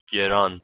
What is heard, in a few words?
"geran", Persian